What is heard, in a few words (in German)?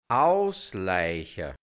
"ausleicha", hianzisch Mundart Burgenland; ausborgen; ausleihen